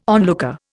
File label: "onlooker", Indian